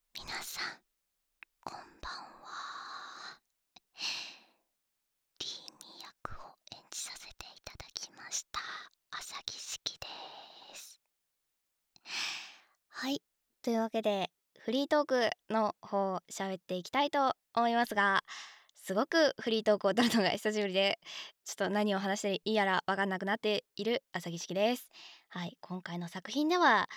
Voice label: フリートーク, ASMR

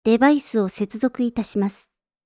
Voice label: デバイスを接続致します, システムボイス; Windows等向けシステムボイス

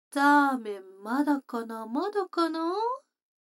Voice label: 女の子 台詞

